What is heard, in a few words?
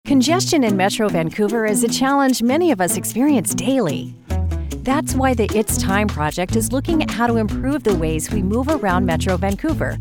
Explainer Videos